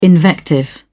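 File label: "invective", British English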